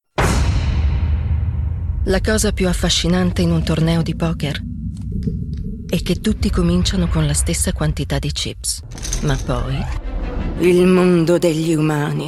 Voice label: Powerful Charismatic; IT Compilation

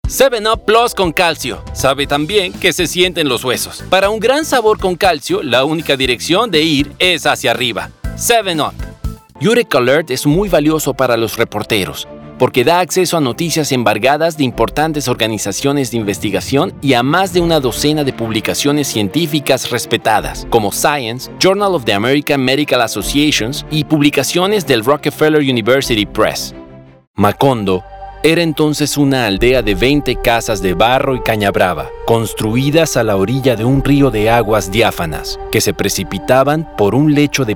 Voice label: Commercial Demo

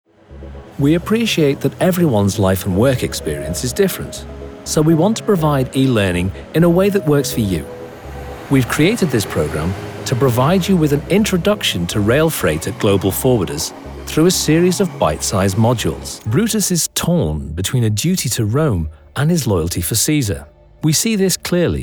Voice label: eLearning

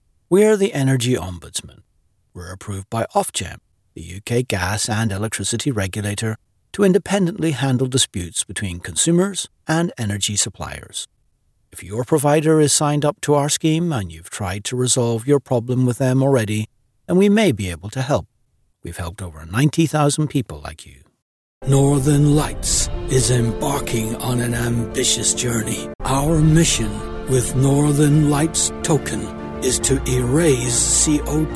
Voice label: Corporate Narration

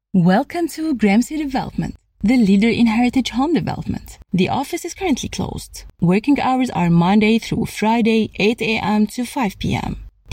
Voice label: English IVR

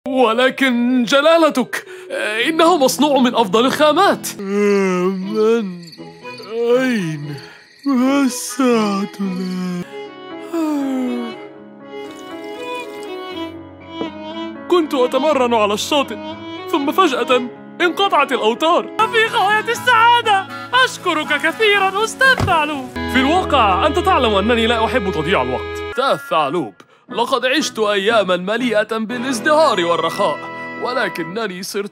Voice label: Arabic animation audio

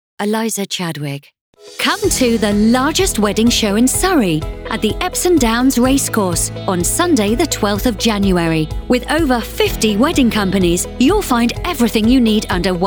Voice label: E commercial

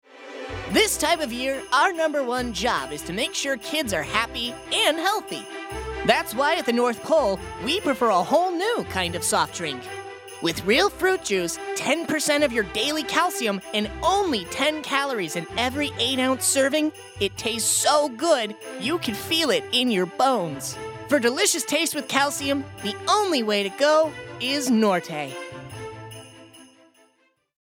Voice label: Read

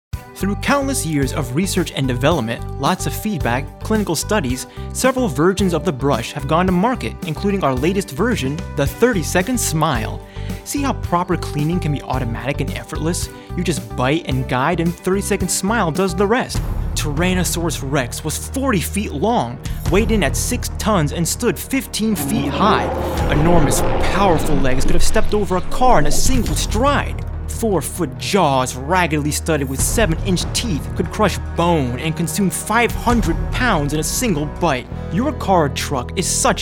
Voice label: Narration

